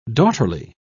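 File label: "daughterly", English, British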